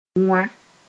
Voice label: "wã", Mooré; this